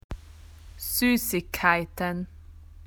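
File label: "sweets", Australian English; PictureDictionary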